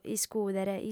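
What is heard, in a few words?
"iscùdere", Sardinian, female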